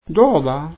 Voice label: "скромно", Nanai

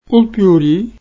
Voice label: "шить", Nanai

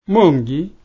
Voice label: "свой", nanai

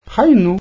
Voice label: "что-то", Nanai

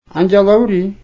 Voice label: "пахать", nanai

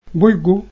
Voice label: "жирный", Nanai

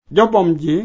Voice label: "работник", Nanai

